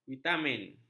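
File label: "vitamin", Malay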